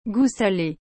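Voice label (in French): "gout sale", French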